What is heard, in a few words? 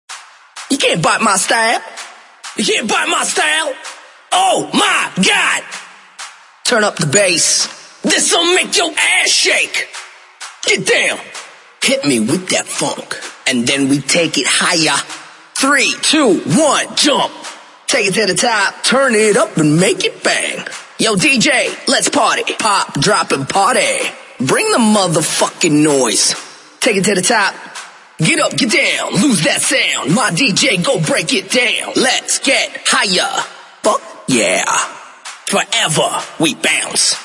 EDM VOCAL DROPS; PLAY IT LOUD SERIES